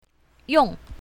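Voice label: "yong4", pinyin, south, female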